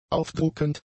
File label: "aufdruckend", German; adjectives